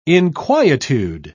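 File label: "inquietude", British English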